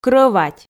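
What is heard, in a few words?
"крӧвать", Komi, female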